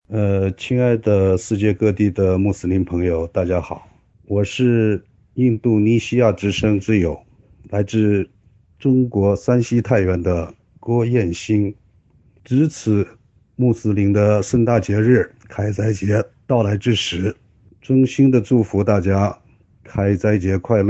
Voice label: GREETING IDUL FITRI MANDARIN